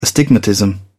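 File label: "astigmatism", British English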